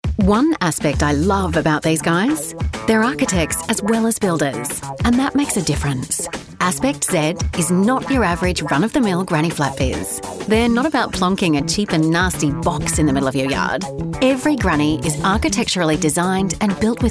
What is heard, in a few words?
conversational